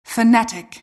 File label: announcer team names; intl2013